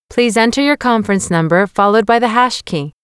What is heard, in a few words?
american english Enter Conference Number